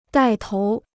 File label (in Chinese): "带头", Chinese